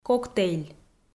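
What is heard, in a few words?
"коктейль", Komi, female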